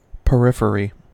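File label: "periphery", US English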